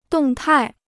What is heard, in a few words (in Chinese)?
"动态", Chinese